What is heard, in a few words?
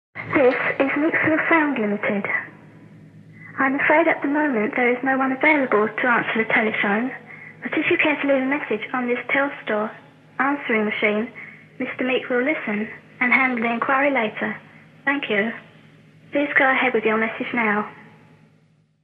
Telephone Answering Machine Message; Joe Meek; Meeksville